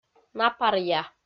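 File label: "напаръя", Komi-Zyrian, female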